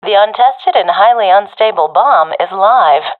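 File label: bomb planted, professional female; vo; Counter Strike Model Pack; CS:GO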